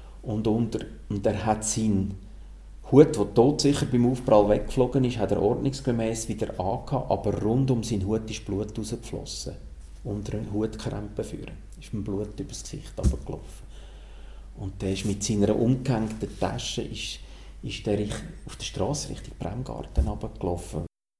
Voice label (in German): Unfall Kondukteur; BDB